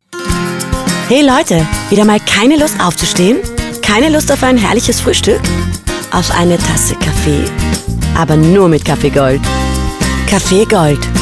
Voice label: Spot; Ticmusic

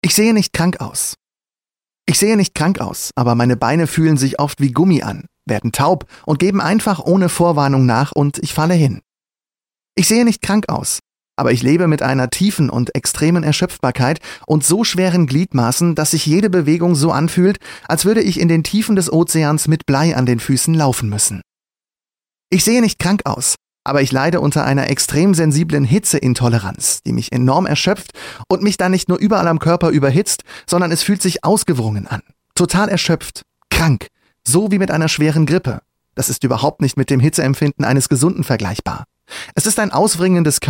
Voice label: Hörprobe Hörbuch; Unsichtbare Symptome bei MS

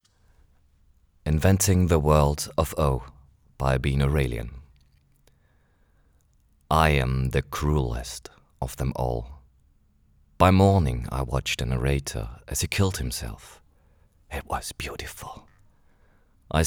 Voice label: Hörbuch englisch

